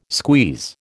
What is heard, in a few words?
"squeeze", American